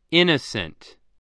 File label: "innocent", voice spelling